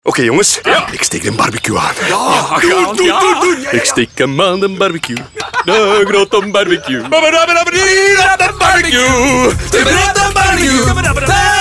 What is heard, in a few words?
NL, VO; Home; 30s; Ethias; Skibidou